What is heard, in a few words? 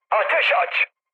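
attack position, mood med, Turkish; speech; War Thunder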